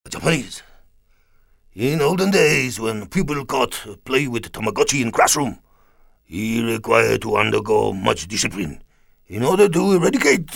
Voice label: JAPANESE